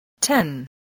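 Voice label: "ten", English word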